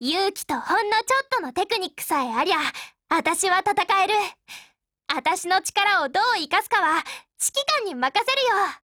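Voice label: DIALOGUE, Japanese; SIG556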